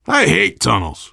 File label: world farmhouse, voice, biker